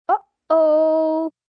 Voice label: Uh Oh Trouble, child, boy, in trouble; Kids; VoiceBox

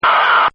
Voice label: AHHH, exclamation; Voice; Partners In Rhyme

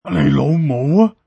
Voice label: Asian Thug

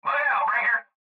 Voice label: Cb Trucker Radio; Radio Voice; Blastwave

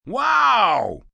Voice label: "Wow", Reaction, positive, male; Exclamation; VoiceBox

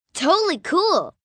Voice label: Totally Cool, Reaction, 1 girl, 9 yrs.; Talking Sound Effects

